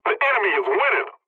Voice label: pilot tickets lead start enemy, english; War Thunder